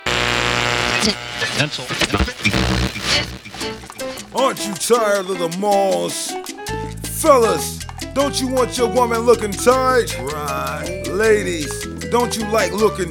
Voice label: Commercial Break